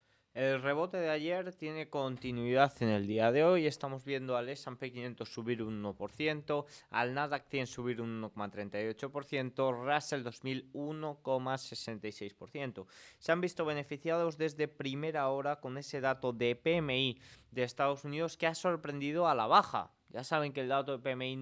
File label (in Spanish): radio; noticias bolsa; continua el rebote tras los debiles datos de pmi